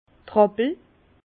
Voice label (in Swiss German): "rappel", Bas Rhin; fouloir; vin